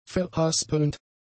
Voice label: "verhaspelnd", German; adjectives

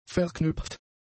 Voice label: "verknüpft", German; adjectives

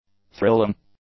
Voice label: "thrilling", English; adjectives

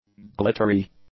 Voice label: "glittery", english; adjectives

